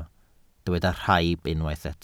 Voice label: "rhaib", welsh

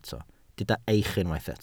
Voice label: "eich", Welsh

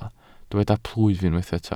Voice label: "plwyf", Welsh

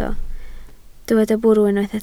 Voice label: "bwrw", Welsh

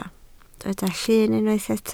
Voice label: "llyn", Welsh

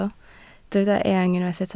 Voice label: "eang", Welsh

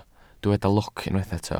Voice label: "lwc", Welsh

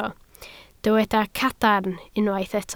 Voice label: "cadarn", Welsh

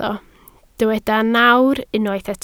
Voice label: "nawr", Welsh

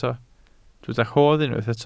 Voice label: "rhodd", welsh

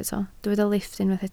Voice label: "lifft", Welsh

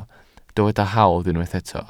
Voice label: "hawdd", Welsh

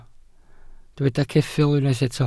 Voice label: "ceffyl", Welsh

